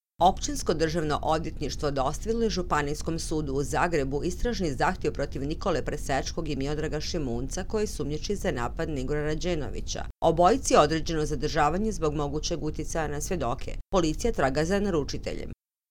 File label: Croatian news